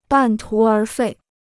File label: "半途而废", Chinese